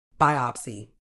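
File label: "biopsy", British English; ˈbaɪ.ɒp.siː; BIGH-op-see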